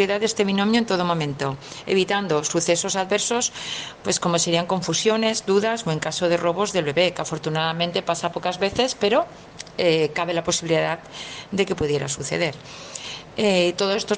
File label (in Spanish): voz identificacion recien nacido